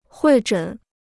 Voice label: "会诊", Chinese